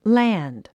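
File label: "land", English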